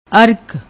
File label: "ark", Punjabi; ਅਰਕ